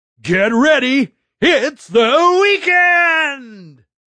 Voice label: Ready Weekend, Male; Radio Sound Effects; VoiceBox; Twistedtracks